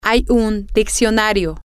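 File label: there is a dictionary, Spanish, female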